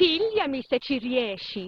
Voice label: italian voice; BALLY; CORVETTE; Son Corvette Original